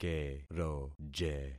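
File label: "keroje", concatenated syllables; Affixing experiment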